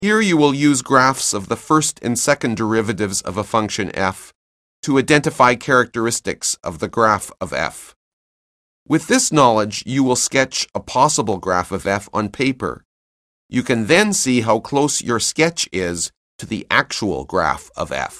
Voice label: narration; CALCULUS 7E; cengage